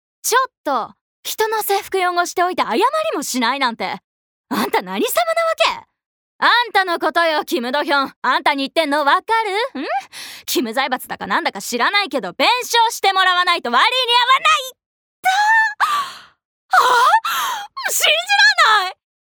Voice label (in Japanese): 外画